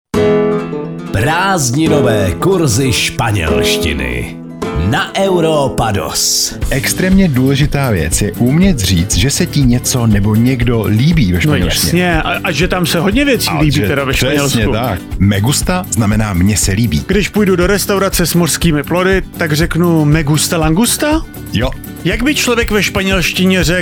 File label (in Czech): spanelstina spanelstina